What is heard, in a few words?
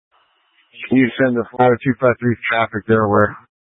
Fire Departments, scanner